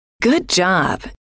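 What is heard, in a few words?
good Job, female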